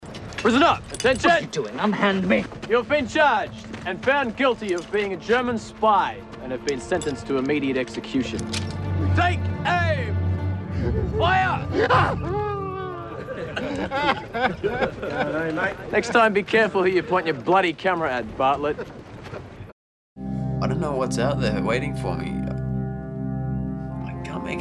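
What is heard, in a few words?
Accent Australian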